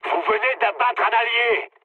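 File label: player killed ally, mood low, French; War Thunder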